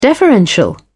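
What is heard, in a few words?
"deferential", British English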